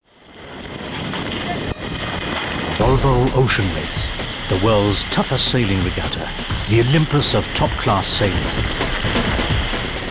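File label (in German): English UK Werbung Dynamisch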